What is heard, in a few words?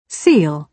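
"seal", British English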